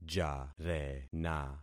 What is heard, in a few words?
"jarena", concat syllables; Affixing experiment